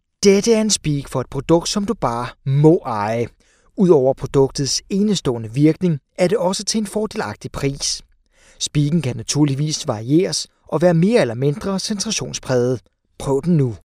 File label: male danish voice over sales